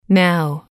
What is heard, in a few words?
"now", English